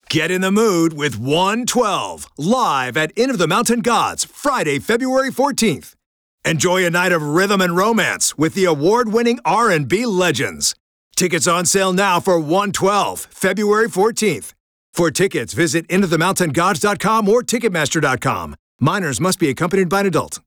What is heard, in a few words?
Radio VO